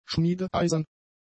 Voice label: "schmiedeeisern", German; adjectives